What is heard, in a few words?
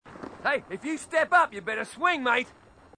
marine, aussie; h2dialogue